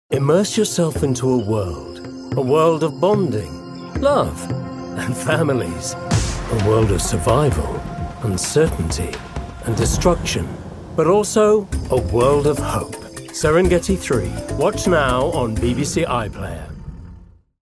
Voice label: TV PROMO; promos idents; Megamix